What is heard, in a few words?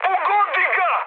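Serbian attack position mood high; War Thunder; speech srb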